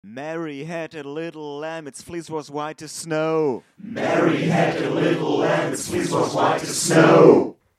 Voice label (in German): test dopplung, megafon effekt stimmen